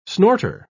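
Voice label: "snorter", British English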